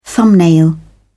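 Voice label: "thumbnail", British English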